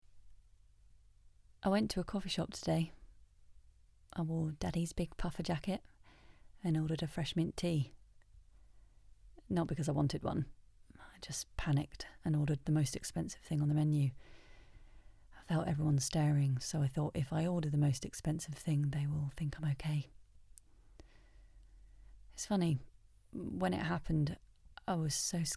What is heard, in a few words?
Monologue Anything is Possible